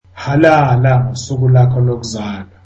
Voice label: zulu Happy birthday